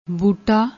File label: "boota", Punjabi